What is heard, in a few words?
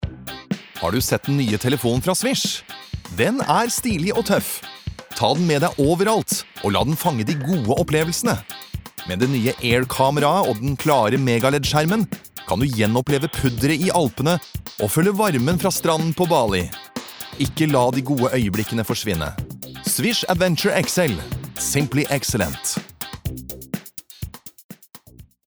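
no fresh, Norwegian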